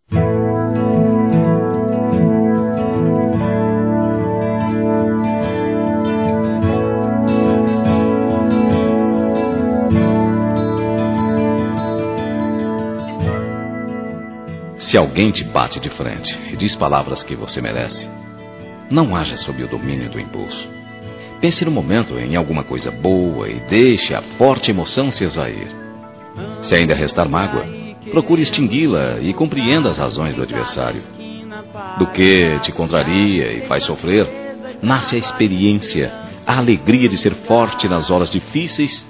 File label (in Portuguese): Contenha Impulsos Masculino, Telemensagens Românticas